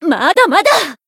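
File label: Voice damaged Japanese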